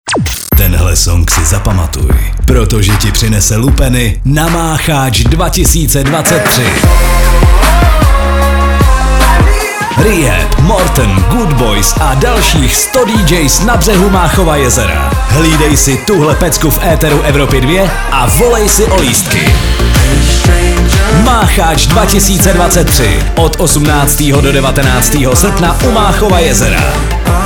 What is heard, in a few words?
promo; machac2023 soutez